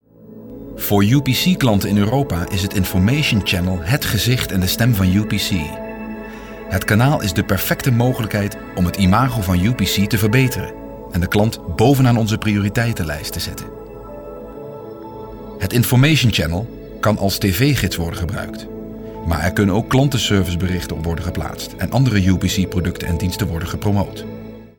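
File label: Dutch, Corporate; GoLocalise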